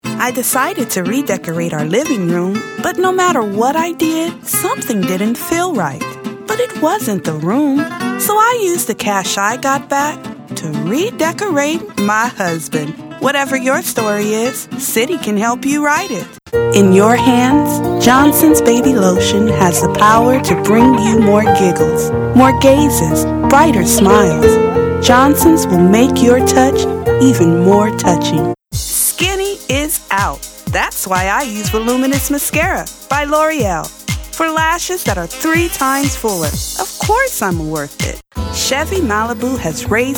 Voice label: commercial mixed

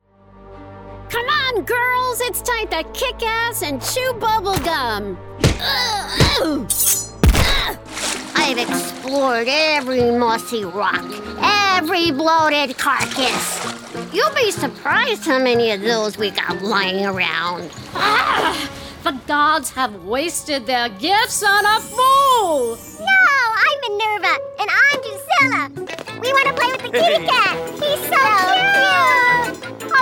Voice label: Voice Actor

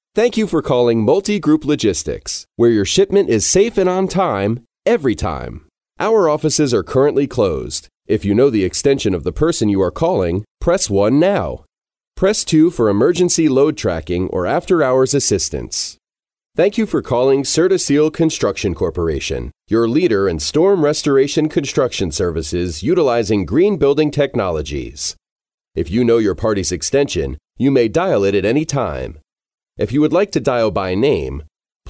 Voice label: Phone Message

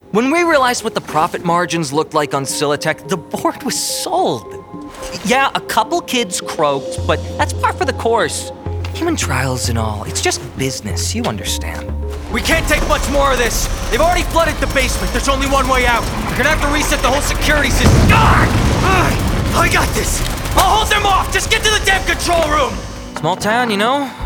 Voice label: Videogame, en-AU; Videogame Demo

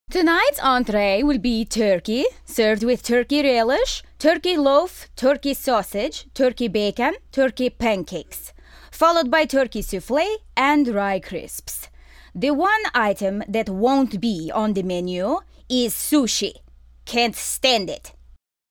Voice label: Middle Eastern Accent

